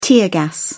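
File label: "tear gas", British English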